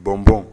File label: "bɔnbɔn", Bambara; dumini